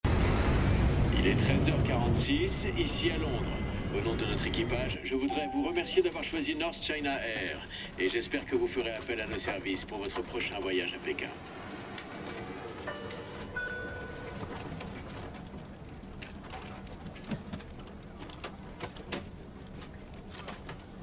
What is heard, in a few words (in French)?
Commandant De Bord AVION